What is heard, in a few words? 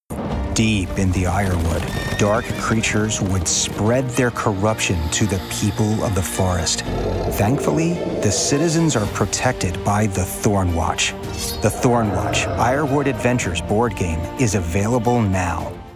Commercial Gaming